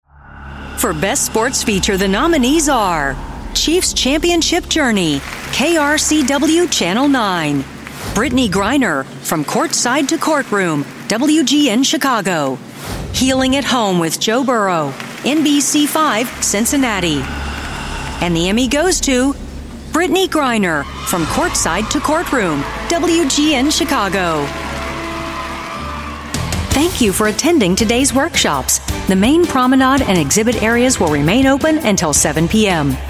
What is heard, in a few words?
Live Announce, Female Voice Actor